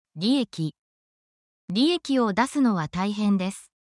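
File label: Japanese word, essential Japanese phrases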